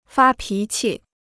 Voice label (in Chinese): "发脾气", Chinese